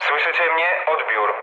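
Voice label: Polish chat; War Thunder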